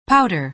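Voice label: "powder", American English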